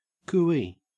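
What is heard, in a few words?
"cooee", Australian English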